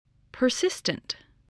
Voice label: "persistent", voice, English